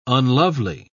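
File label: "unlovely", English, British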